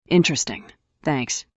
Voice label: fem